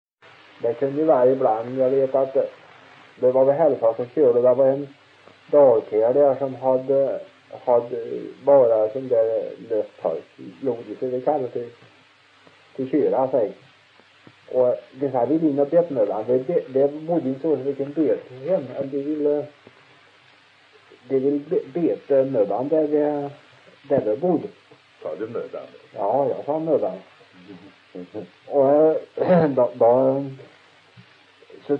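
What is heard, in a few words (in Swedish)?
Sunne Värmland, dialekter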